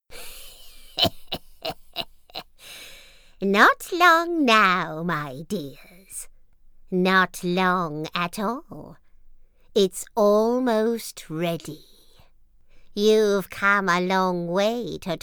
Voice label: Witch